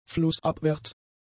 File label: "flussabwärts", German; adjectives